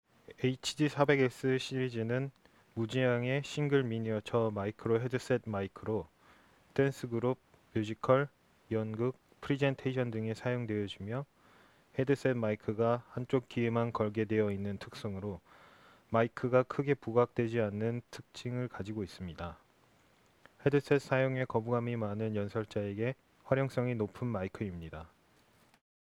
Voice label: male, wireless microphone; votex hd400; korea